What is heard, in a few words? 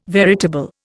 "veritable", Indian